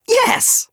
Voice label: VO en